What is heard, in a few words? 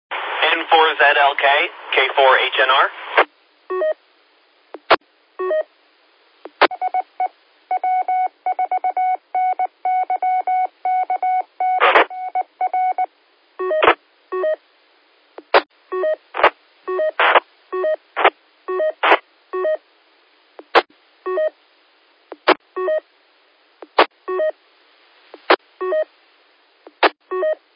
qso rec repeater logic